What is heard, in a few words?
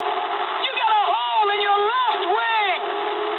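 English left wing mood high; speech; War Thunder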